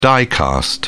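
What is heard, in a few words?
"die-cast", British English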